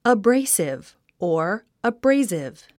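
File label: "abrasive", English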